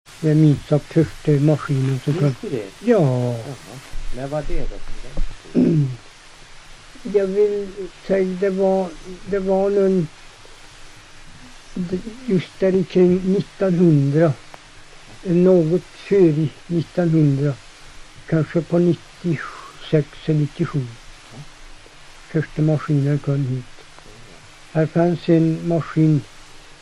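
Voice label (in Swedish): Hede Härjedalen, svenska sagor dialekter; Folkminnen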